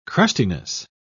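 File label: "crustiness", British English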